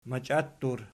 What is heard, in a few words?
"machiattur", Archi